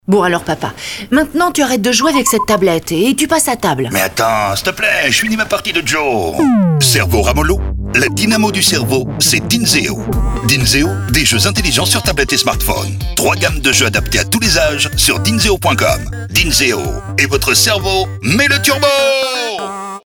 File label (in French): SPOT RADIO; DYNSEO